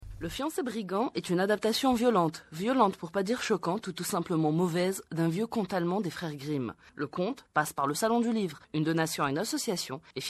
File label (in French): Reportage; Enfant livre